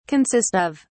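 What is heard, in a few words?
"consist of", English, British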